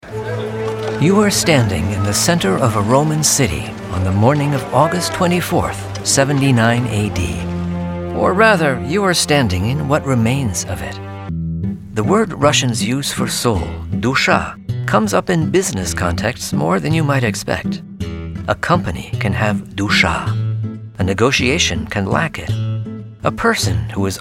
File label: Narration